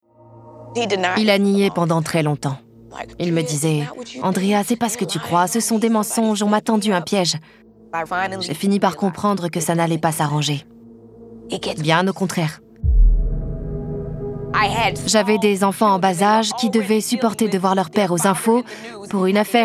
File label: voice over